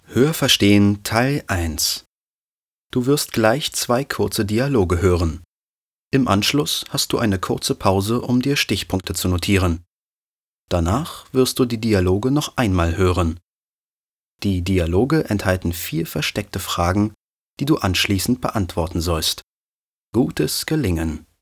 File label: E-learning